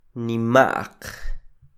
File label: "nima'q", Quiché; grandes(plural predicativo); big(plural predicative); INALI; UTexas; CHQETA